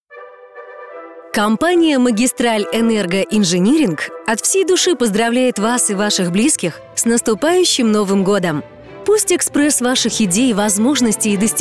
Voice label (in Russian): Голосовое приветствие IVR; АВТООТВЕТЧИКИ; Магистраль Энерго Инжиниринг